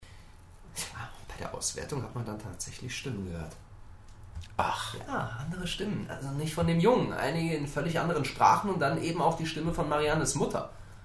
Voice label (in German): Hoerspiel